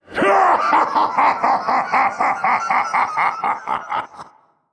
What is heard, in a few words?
Male Old God Trigger